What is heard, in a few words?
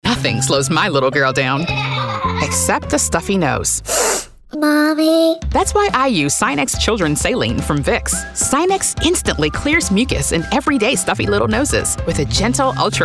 Mom Supportive Relatable, african american voice; Vicks